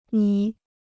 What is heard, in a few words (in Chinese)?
"你", Chinese